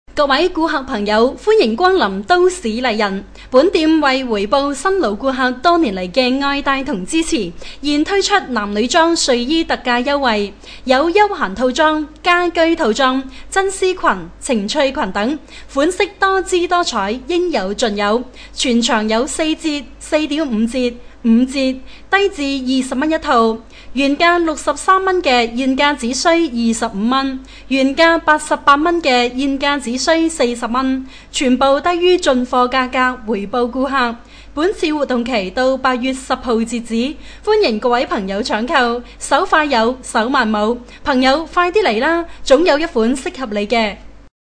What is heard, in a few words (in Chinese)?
粤语 女; 都市丽人